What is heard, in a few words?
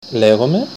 my name is, Greek